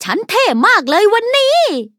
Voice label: levelup th; Cookie Run

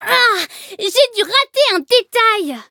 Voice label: defeat, fr; cookierun

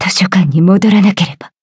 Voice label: defeat ja

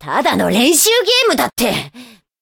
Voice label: defeat, ja

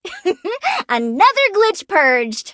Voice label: victory, en; cookie run

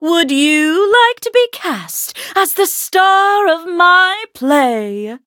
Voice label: loading, en; cookie run

